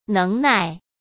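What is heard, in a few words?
"能耐", Mandarin Chinese; chinese-flashcards; hsk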